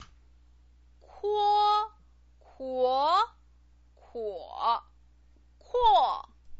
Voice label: "kuo", Chinese pinyin

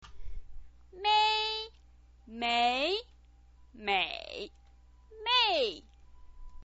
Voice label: "mei", Chinese pinyin voice

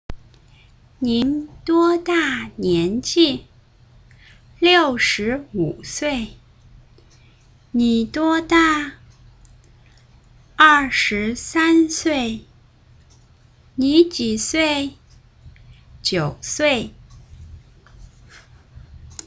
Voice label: huihua, chinese

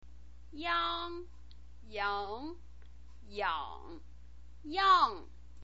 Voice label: "iang", Chinese pinyin voice

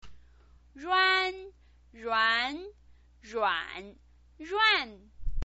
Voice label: "ruan", chinese pinyin

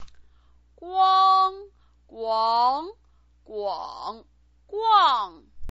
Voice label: "guang", Chinese pinyin

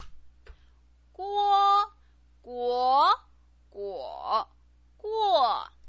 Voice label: "guo", Chinese pinyin

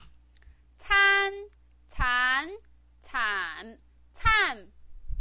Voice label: "can", Chinese pinyin

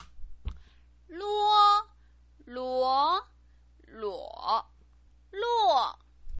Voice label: "luo", Chinese pinyin

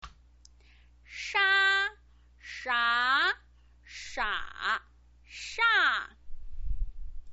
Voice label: "sha", Chinese pinyin voice

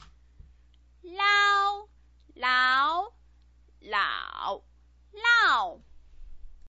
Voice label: "lao", Chinese pinyin

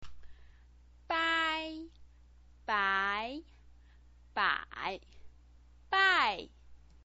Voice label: "bai", chinese pinyin

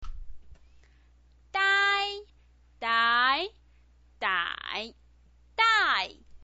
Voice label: "dai", chinese pinyin

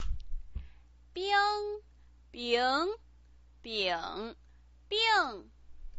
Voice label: "bing", pinyin

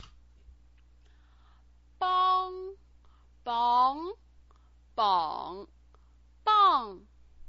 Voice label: "bang", Chinese pinyin

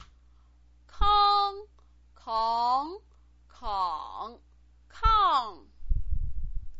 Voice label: "kang", Chinese pinyin

